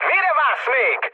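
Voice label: attack position, mood med, Hungarian; speech; War Thunder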